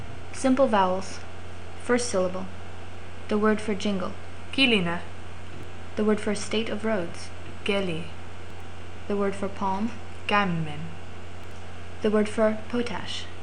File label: Simple Vowels